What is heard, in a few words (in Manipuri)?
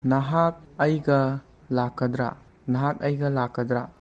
"Nahak eigga lakkadra?", Manipuri; Will you come with me?